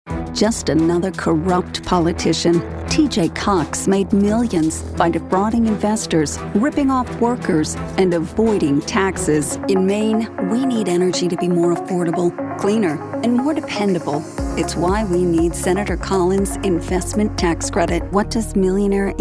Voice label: english american political ads

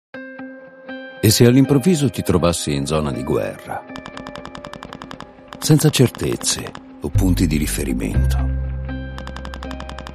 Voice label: italian

